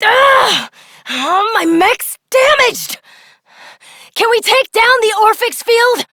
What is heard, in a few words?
Operator Voice, en; Warframe; New War